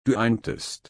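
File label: "einen", German, slow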